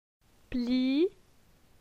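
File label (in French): "Pli", French; phonetique; la syllabe i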